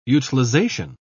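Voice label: "utilisation", British English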